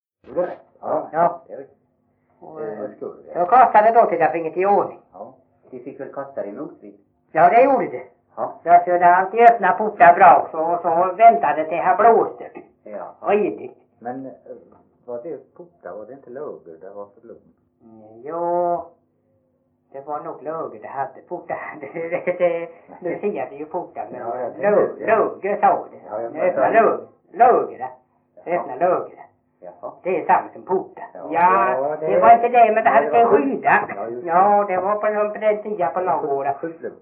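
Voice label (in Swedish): Veddige Halland, Svenska sagor dialekter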